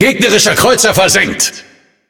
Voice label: Speech Destroy Enemy Cruiser, DE; World Of Warships

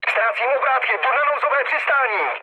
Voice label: damaged engine, speech cze, mood low; War Thunder

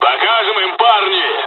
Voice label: dialog, dialogs russian; us midway; War Thunder

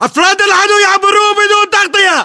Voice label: threat infantry exposed, battle chatter, english

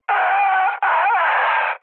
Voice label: damaged pilot, mood high, speech tur; War Thunder

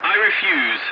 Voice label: voice message no, english; War Thunder

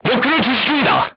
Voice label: voice message target lock, crew tanks korean

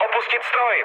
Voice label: bailout, speech cze, mood low; War Thunder

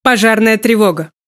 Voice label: Speech Alarms Fire Alarm; World Of Warships

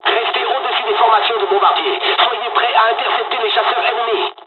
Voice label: dialog, dialogs french; midway; War Thunder

